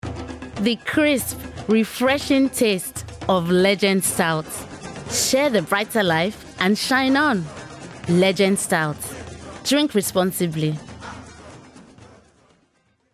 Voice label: female English Nigerian accent commercial; legend stout